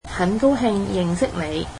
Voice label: cantonese nice to meet you